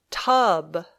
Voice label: "tub", English